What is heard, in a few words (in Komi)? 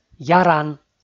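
"яран", Komi, male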